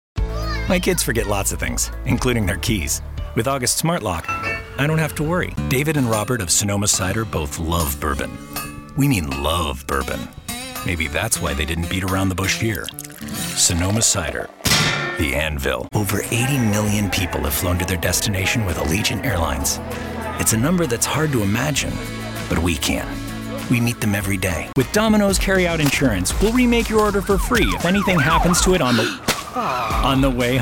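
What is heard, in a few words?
MALE VOICE American English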